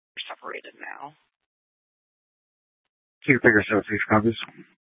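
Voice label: Mesa Police Department Superstition Dispatch, scanner; Mesa PD; 4 Superstition Dispatch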